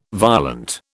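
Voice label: "violent", British English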